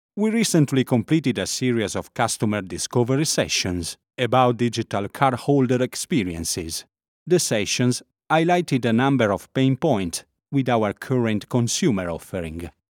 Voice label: english e-learning